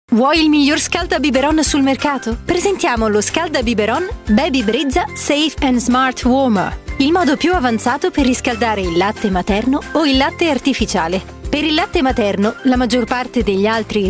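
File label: italian e-learning, Female; voice actor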